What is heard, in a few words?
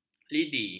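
"lidi", Malay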